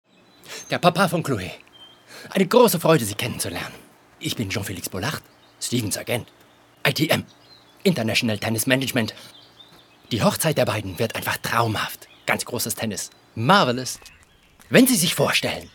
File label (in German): SYNCHRON französ Kommödie; LOFT VOICES